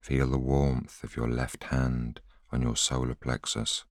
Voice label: voiceover